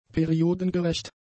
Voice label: "periodengerecht", German; adjectives